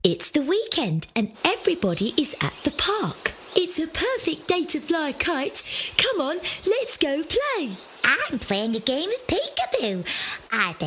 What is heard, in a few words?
Animation